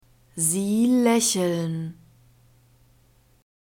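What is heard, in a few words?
"sie lächeln", German; verbs present tense regular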